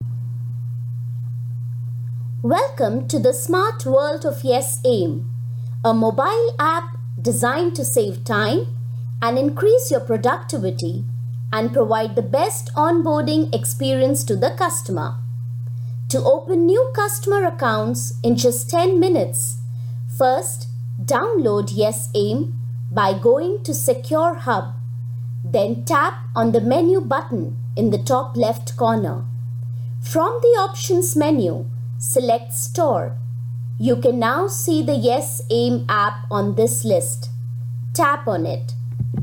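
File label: corporate training, English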